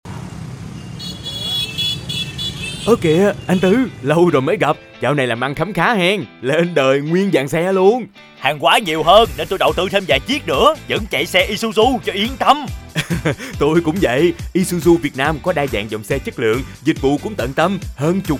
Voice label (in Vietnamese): thu am dien thoai; ISUZU